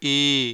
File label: "ii", vowel